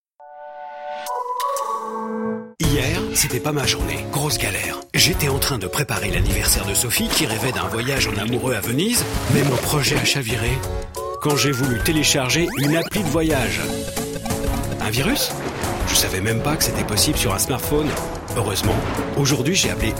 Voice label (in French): Voix Off Motion Design Ton naturel et jeune; SFR Securite